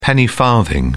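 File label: "penny farthing", English, British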